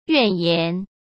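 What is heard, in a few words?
"怨言" Mandarin Chinese; chinese-flashcards; hsk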